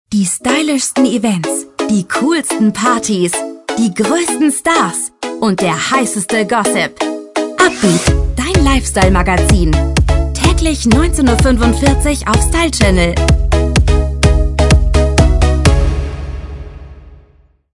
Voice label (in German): Werbung; Stylechannel